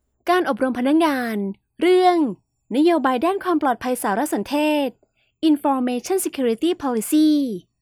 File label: Female ทางการ